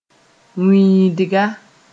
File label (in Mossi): "wĩidga", Moore